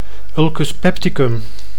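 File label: "ulcus pepticum", Dutch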